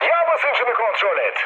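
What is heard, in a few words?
Turkish damaged oil, mood med, speech; War Thunder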